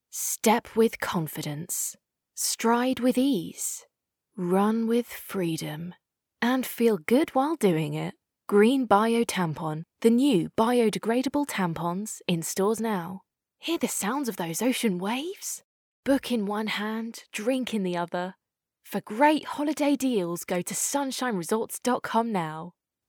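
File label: British English, female, voice over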